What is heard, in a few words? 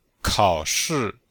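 "kao3shi4", Chinese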